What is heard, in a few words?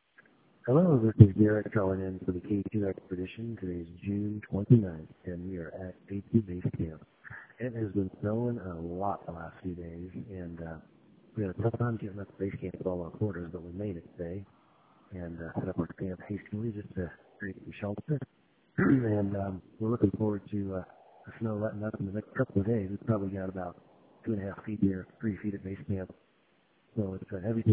voicemail